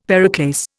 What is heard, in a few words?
"periclase", Indian; Dictionary